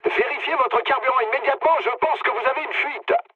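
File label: French tank damaged mood low; War Thunder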